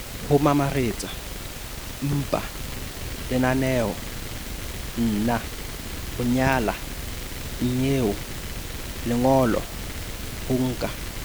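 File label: Sesotho consonants nasals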